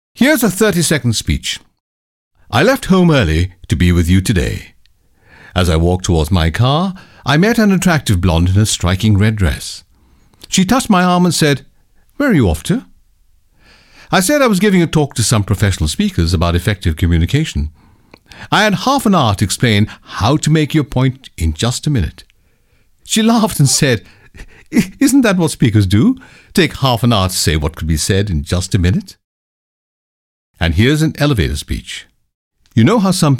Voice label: voiceover